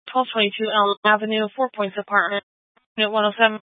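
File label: Police Dispatch, Scanner audio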